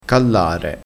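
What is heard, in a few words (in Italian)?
"callare", dialettale crespinese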